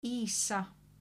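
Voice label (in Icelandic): "Ýsa", Icelandic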